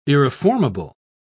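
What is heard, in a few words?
"irreformable", British English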